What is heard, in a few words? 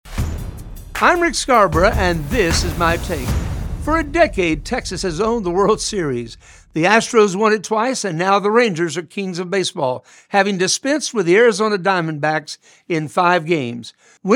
Radio Spot